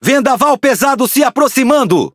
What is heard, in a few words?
Speech Alarms Snowstorm, PT BR; World Of Warships